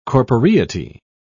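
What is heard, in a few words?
"corporeity", British English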